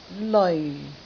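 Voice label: "leoi4", Cantonese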